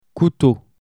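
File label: "couteau", French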